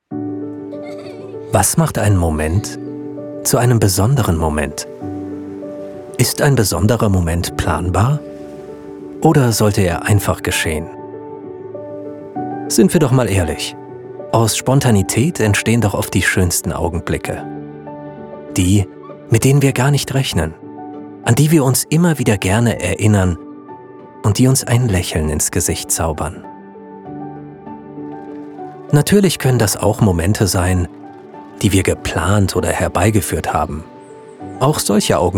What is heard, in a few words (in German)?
moodvideo, sprecher